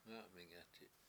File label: "ngA7mingEti7", washo; give birth